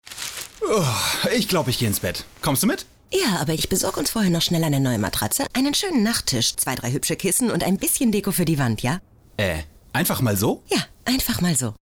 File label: Commercial (Werbung), Scene, German; Einfach mal so